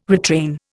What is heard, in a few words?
"retrain", Indian